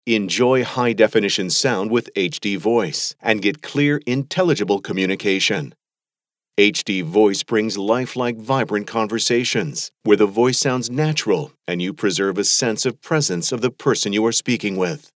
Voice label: HD voice